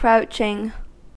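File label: "crouching", American English; pronunciations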